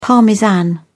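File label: "parmesan", British English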